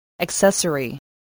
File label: "accessory", English voice